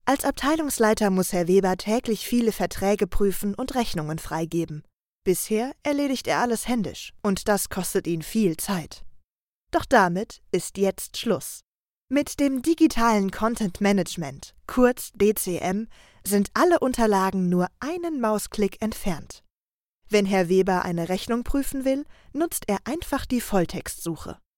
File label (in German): Erklaerfilm